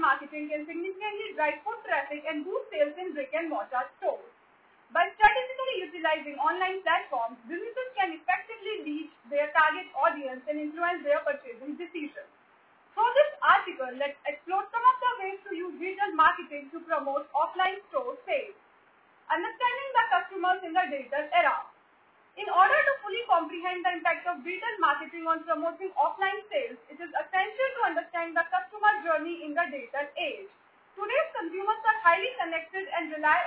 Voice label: voice over; Galla blog